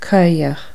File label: "dirty", Irish